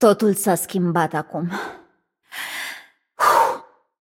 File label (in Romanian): Room2 VO Object Interact, vo, ro-ro